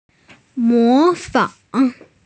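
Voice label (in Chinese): "mo2fang3", Mandarin Chinese, female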